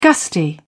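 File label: "gusty", British English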